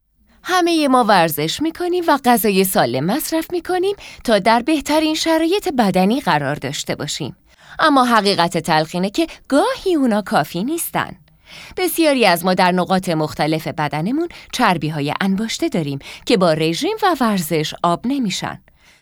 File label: narration